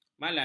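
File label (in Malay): "malai", Malay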